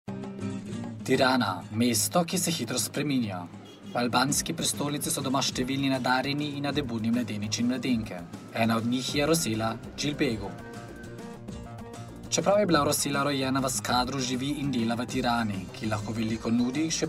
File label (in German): Doku